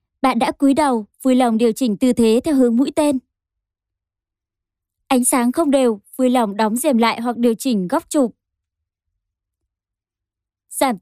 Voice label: 越南语女, 外语, 小语种

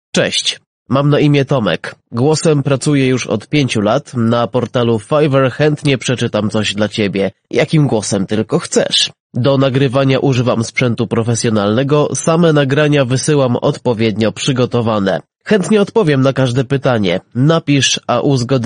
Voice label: 波兰语男, 外语, 小语种, 年轻

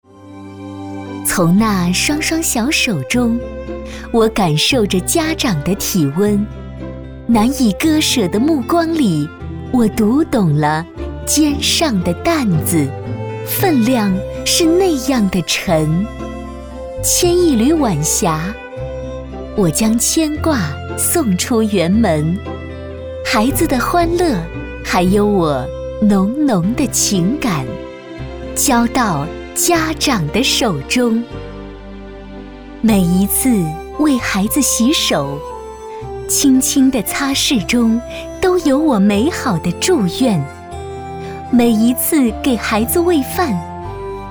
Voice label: 专题 幼儿园 亲切; 学校

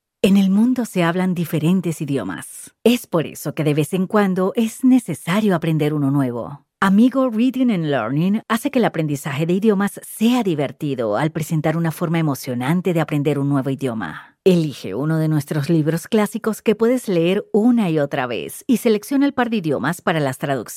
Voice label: 西班牙语女 外语 小语种

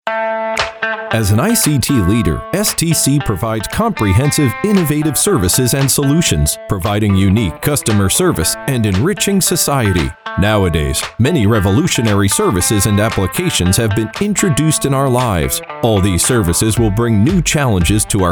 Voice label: 外籍男英 外语 美式英语 轻松; 5G时代

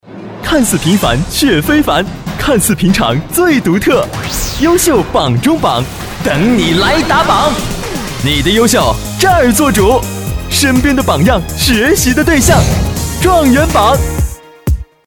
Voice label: 广告 片头片花 活力, 男; 状元榜

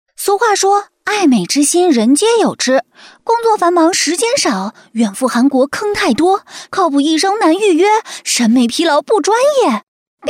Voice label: 宣传片 APP推广; 病毒

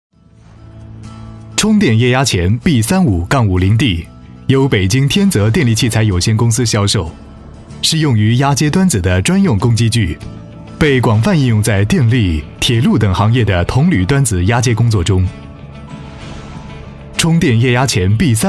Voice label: 多媒体 产品演示, 男国; 充电液压钳

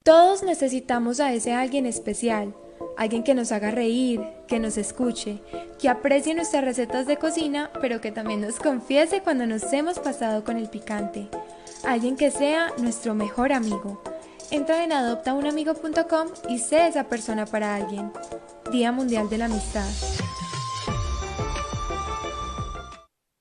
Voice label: 西班牙语女 外语 小语种

